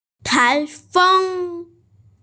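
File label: "tai feng", Mandarin Chinese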